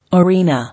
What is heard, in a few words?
"Arena", English